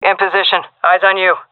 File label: in position, fem; vo; agents; Source Model Packs; Counter Strike Model Pack; CS2